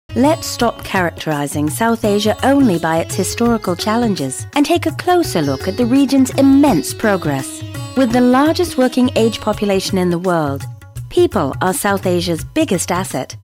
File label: British narration geographical, british accent